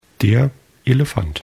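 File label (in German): "elefant", German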